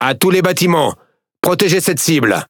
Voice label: Speech Quick Commands Ally Ship, FR; World Of Warships